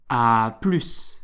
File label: "à plus", French